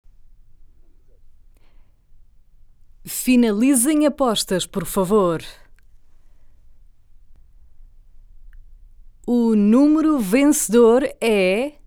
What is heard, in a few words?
portuguese video games